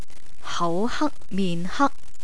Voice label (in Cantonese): hau hak min hak, Cantonese; feeling